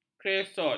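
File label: "kreosot", Malay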